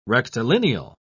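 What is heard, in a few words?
"rectilineal", British English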